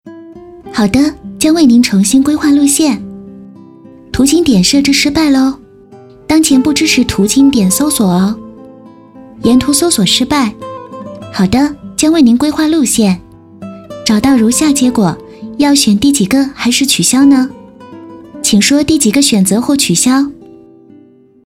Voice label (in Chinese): 女, 语音导航; 天猫